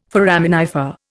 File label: "foraminifer", Indian